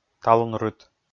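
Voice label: "талун рыт", Komi, male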